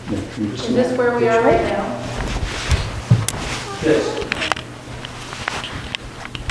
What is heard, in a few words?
small voice behind us